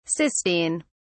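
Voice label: "cystine", British English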